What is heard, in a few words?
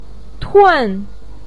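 "tun4", Mandarin Chinese, pinyin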